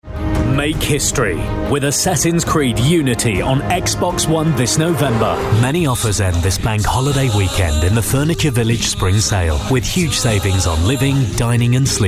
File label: commercial